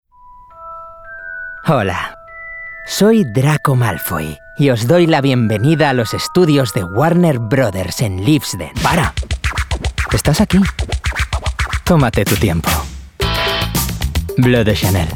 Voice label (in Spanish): Commercial, Bright, Upbeat, Conversational, Spanish